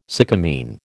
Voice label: "sycamine", American